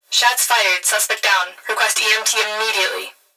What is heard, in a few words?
Emergency Human Female Voice Police Radio Shots Fired Suspect Down Request EMT Immediately; emergency effects